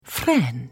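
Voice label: Hello, Khajiit female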